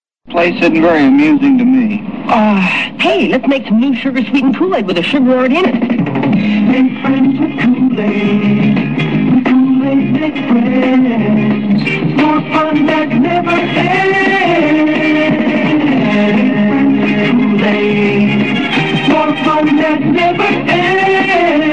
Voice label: Commercial; Kool-Aid; 1969